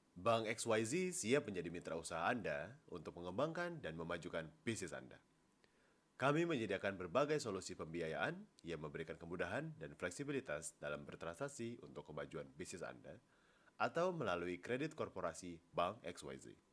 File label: Formal Indonesia